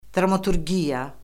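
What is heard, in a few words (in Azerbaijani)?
"dramaturgiya", Azerbaijani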